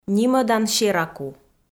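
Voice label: "нимӧдан сераку", Komi-Zyrian, female; kpv audio dict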